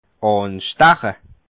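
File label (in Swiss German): "absteche", Bas Rhin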